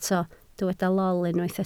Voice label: "lol", Welsh